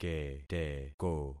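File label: "keteko", concatenated syllables; Affixing experiment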